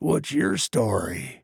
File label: trader male neutral greeting